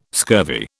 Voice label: "scurvy", British

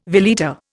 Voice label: "veleta", Indian

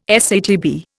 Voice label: "SATB", Indian

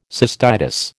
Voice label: "cystitis", American

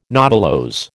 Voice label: "nodulose", American

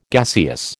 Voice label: "gaseous", American

